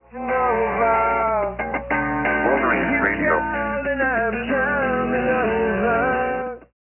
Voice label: Radio ID over music no echo; Wolverine